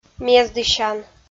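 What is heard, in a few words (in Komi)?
"мездысян", Komi, female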